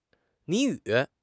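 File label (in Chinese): "谜语", Chinese; HSK6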